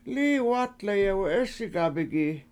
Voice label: lII wAt lEEyewe7EEsigabigi We Wont Go Tomorrow, Washo